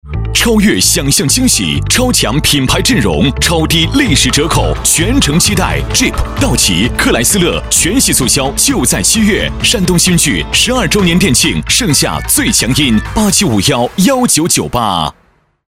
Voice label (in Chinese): 男 广告 科技; 山东新巨